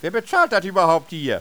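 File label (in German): "bezahlt", äusserungen westfalen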